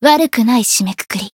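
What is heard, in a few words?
Voice win, Japanese; sophia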